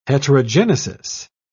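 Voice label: "heterogenesis", English, British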